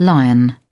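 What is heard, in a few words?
"lion", British English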